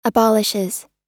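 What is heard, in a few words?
"abolishes", American English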